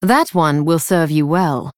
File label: Female Night Elf